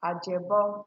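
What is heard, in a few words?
"ajebo", Nigerian Pidgin, female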